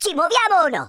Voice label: come on then, speech Italian